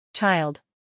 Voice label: "child", English